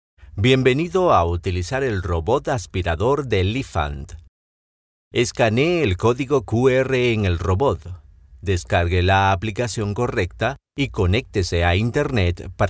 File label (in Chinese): 西班牙语试音